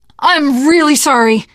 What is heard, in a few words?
sorry, teen girl voice; survivor voice